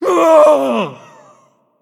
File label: Male Angel Death